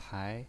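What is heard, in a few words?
"pai" second tone, pinyin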